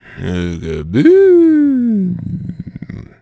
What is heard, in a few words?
Mumble; Zelda